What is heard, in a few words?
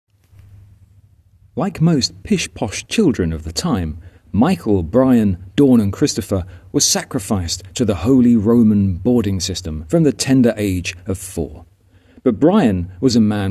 voiceover